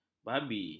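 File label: "babi", Malay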